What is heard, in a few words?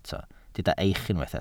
"eich", Welsh